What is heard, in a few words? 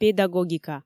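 "педагогика", Komi, female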